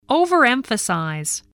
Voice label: "overemphasize", voice

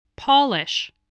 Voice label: "polish", English

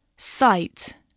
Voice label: "cite", English, voice

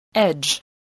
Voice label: "edge", English

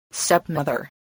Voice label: "stepmother", voice

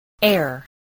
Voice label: "heir", English, spelling

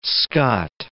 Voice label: "skat", words

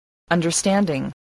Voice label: "understanding", English spelling voice

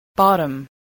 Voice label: "bottom", spelling

